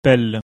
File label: "PELLE", French